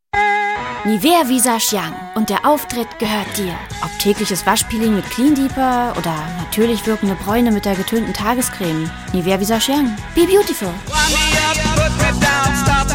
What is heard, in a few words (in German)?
werbung, deutsch frauen